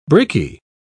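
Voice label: "bricky", English, British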